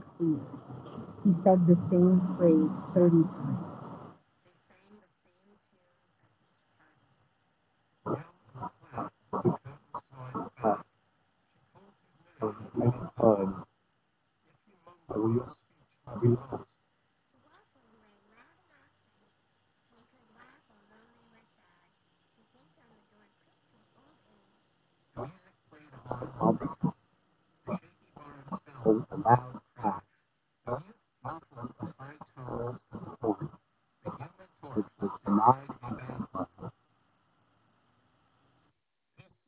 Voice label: Skullcandy Grind Street microphone

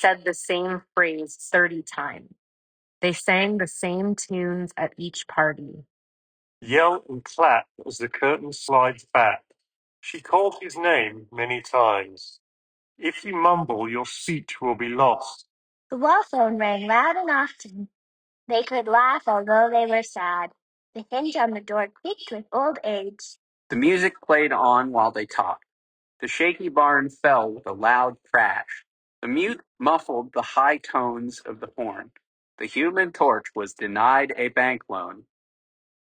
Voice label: Reverb; AirPods 4

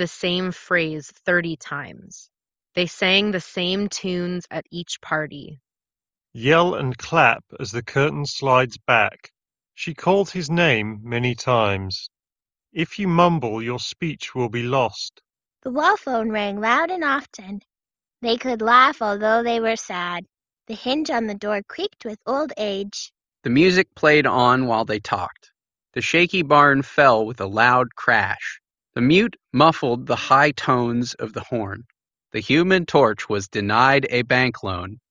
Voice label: JBL Quantum 910P Ideal microphone sample

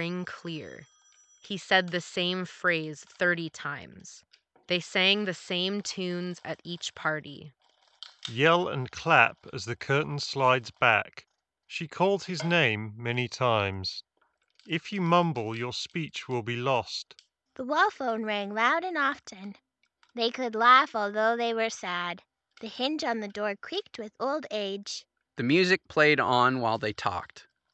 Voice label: Office microphone sample; Razer Kraken V3 Hypersense

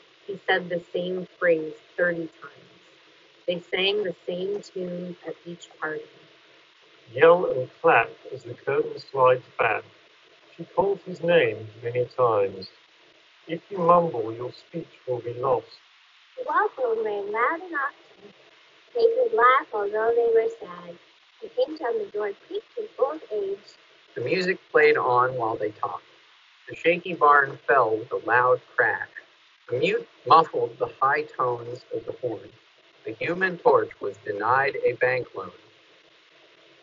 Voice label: Apple AirPods G2 Wind microphone sample

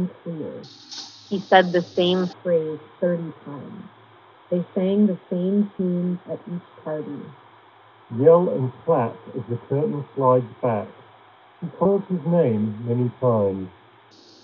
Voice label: LG Tone Free Tone T90Q Street microphone sample

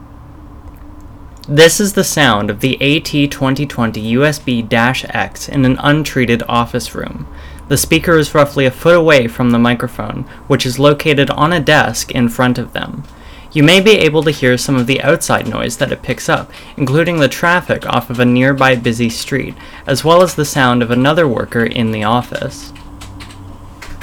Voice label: Untreated Office; AT2020USB-X; audio technica

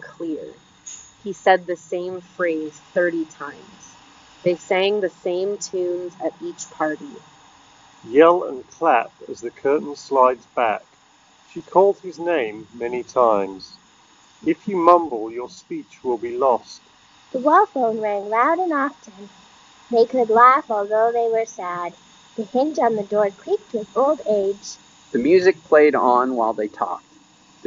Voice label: Motorola Moto Buds S ANC Street microphone sample

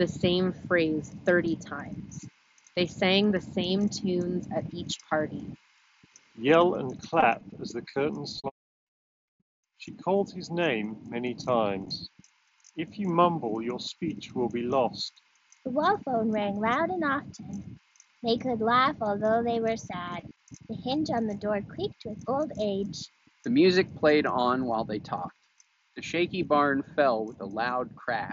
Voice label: Wind microphone sample; Apple AirPods Max

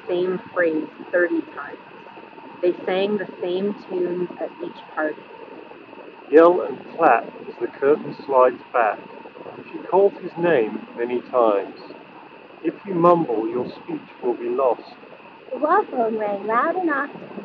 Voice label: Bowers Wilkins PX7 Wind microphone sample

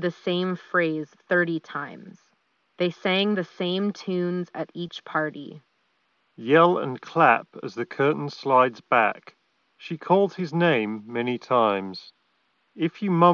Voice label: Ideal microphone sample; Bowers Wilkins PI7

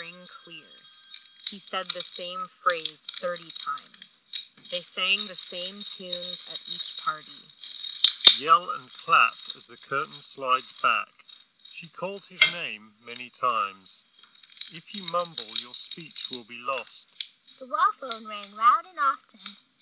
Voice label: Office microphone sample; Bose Quietcomfort Earbuds

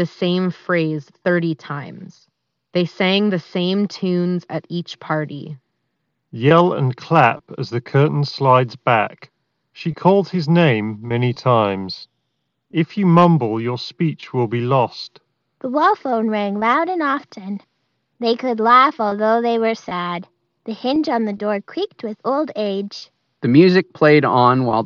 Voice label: Ideal microphone sample; Audio-technica ATH-TWX9

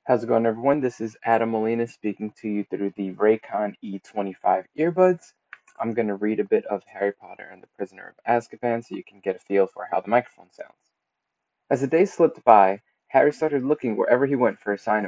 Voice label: Raycon E25 mic

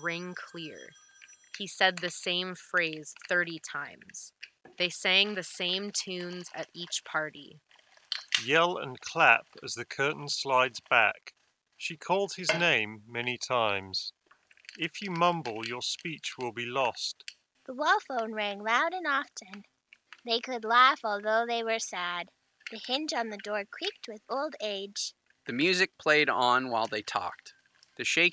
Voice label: Hyper X Cloud Stinger Office microphone sample

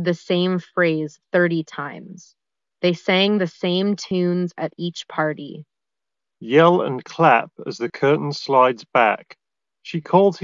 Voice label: Tozo T6 Ideal microphone sample

